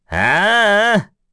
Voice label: Deny, kr; Bernheim; kingsraid

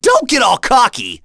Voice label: Vox Skill, en; kingsraid

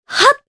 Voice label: Casting, jp; Ophelia; kings raid

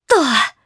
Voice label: Landing, jp; Naila; kingsraid

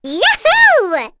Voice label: Vox Happy; kingsraid; voices heroes; en

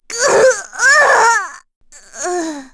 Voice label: Dead, kr; Chrisha; kingsraid

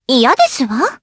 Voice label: Vox Deny jp; Aisha; kingsraid

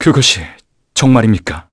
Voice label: Vox, kr; heroes; Fluss; kingsraid

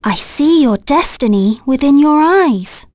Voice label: Vox Victory, en; Ophelia; kingsraid

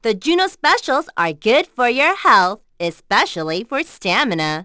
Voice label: vox, en; Juno; kingsraid; voices heroes en

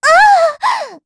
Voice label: Damage, kr; Estelle; Kings Raid

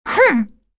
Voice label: Rehartna Vox upset, voices heroes en; kingsraid-audio

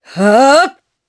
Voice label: Casting, jp; Morrah; kingsraid

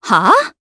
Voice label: Vox Deny, jp; Yanne; kingsraid

